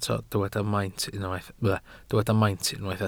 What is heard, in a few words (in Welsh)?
"maint" Welsh